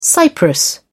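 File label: "cypress", British English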